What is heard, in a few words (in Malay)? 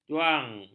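"juang", Malay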